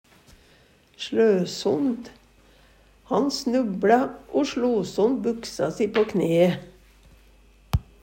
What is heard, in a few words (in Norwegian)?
sLø sond, Numedalsmål; knuse, slå sund, slå hol på